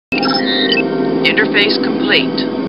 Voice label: Computer Voice; Star Trek; StarTrekLCAR